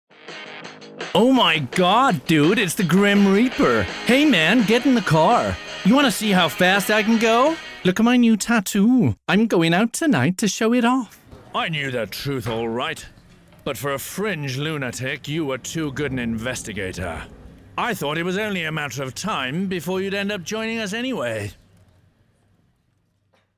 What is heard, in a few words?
Animation, voice artist